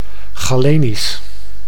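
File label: "galenisch", Dutch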